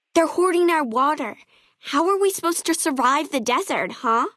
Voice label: female child greeting; FNV